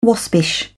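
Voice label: "waspish", British English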